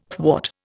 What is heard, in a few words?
"thwart", Indian